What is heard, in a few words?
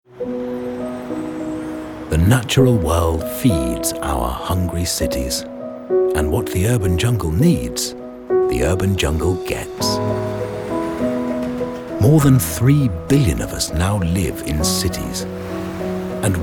narrative voiceover